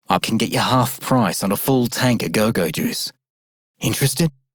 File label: Male Rail Agent; Dialog; Warframe; Solaris Venus